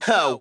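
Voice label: "huh", vocal sample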